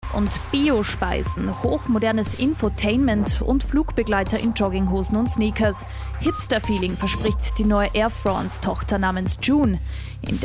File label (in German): Interview; Kronehit